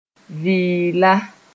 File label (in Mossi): "vɩɩla", moore